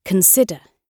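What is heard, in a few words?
"consider", British English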